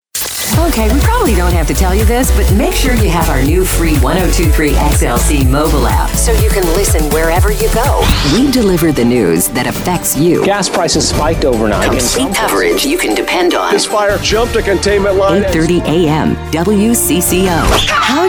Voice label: radio imaging female multi format